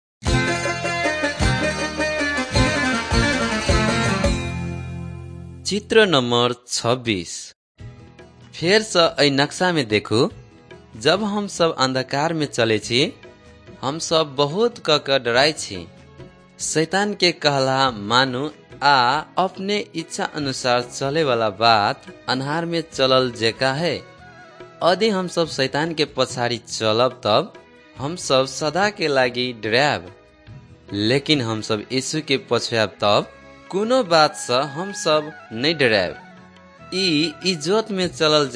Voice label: maithili thetiya